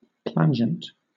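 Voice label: "plangent", British English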